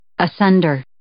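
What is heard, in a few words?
"asunder", English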